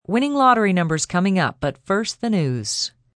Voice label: Lotto Numbers F, Broadcast, announcer, breakaway, female; VoiceBox